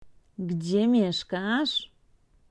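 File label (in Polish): "gdzie mieszkasz", Polish; Vocabulario: frases y palabras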